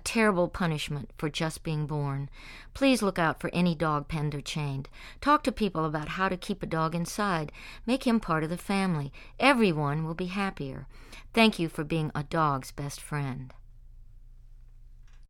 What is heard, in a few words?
anti chaining psa; emmylou harris